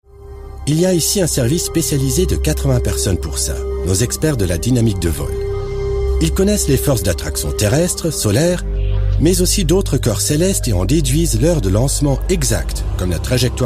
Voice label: french